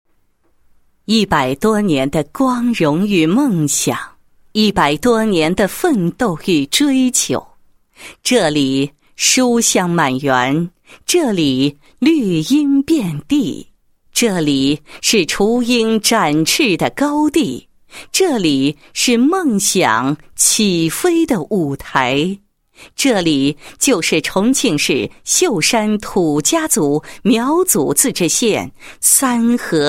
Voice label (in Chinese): 女 学校, 配音; 重庆市秀山土家族苗族自治县三合小学